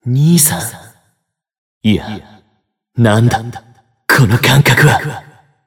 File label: System Voice; BBCF; Jin